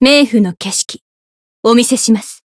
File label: chant, jp; niike